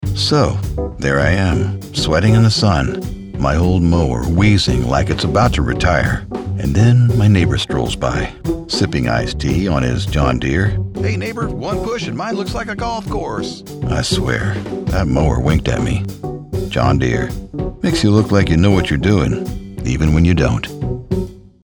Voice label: Radio Commercials, Older Male, warm & engaging Male Voice Over; Pandora - John Deere - Light